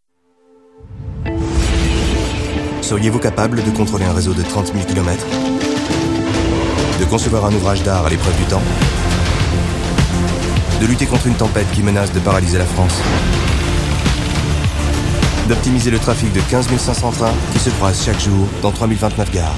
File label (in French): trailer; Sncf